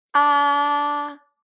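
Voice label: "a", Mandarin pinyin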